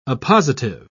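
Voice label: "oppositive", British English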